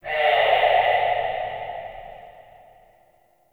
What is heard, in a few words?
Sentient Lair Spirit Chatter, Ambience; Warframe